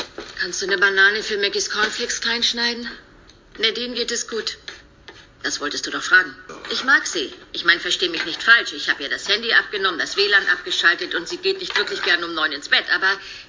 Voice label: Synchron; Seven Seconds

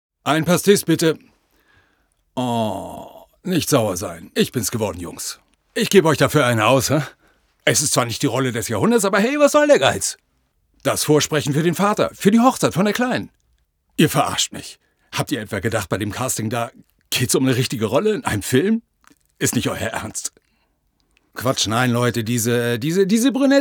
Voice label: Franzoesische Komoedie; Synchron; Französische Komödie

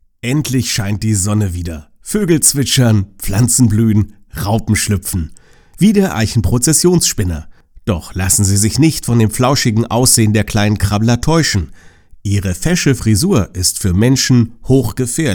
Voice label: Doku

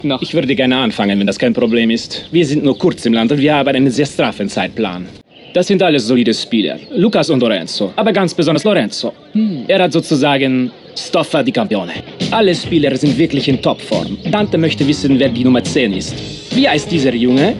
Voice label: synchron italienisch

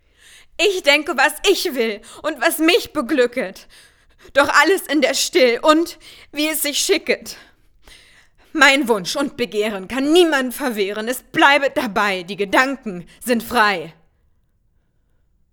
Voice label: Trotzig weinerlich

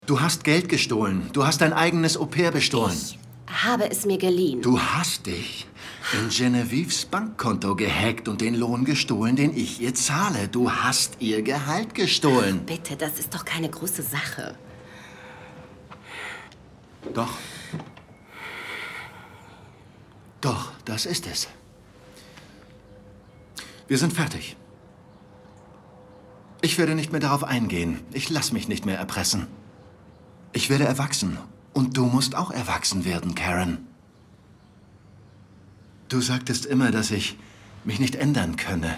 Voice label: Synchron Politiker; Collateral

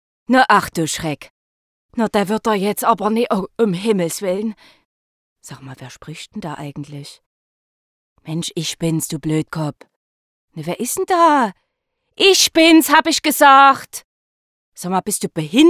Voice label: Cartoon saechsisch; Sächsisch

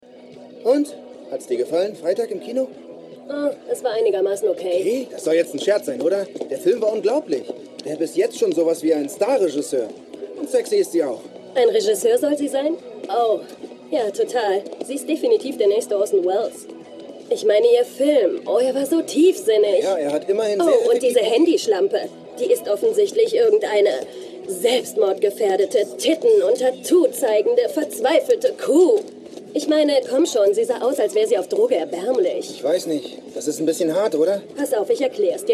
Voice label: Synchron; All About Evil; Freitagabend

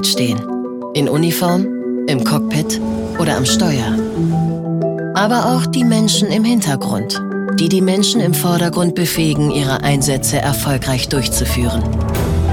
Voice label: Werbung Sicherheit; RUAG

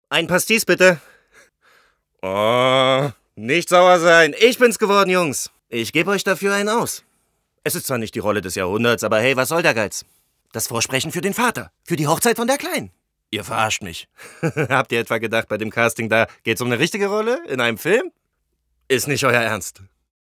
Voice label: Synchron; gönnerisch / prahlend

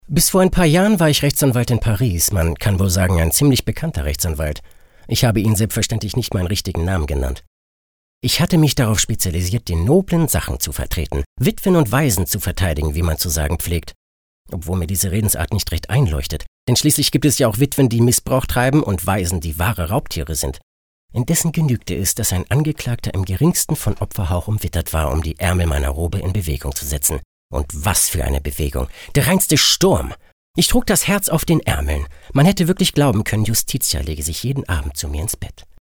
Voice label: Hörbuch; Roman

